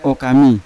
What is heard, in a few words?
"okami" heart, Yawa